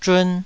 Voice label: "zhun2", taiwanese

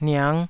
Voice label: "niang5", Taiwanese

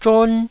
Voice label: "zhon1", Taiwanese syllable

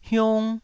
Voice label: "hiung1", taiwanese

